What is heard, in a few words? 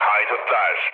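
Turkish enemy detected, mood low, speech; War Thunder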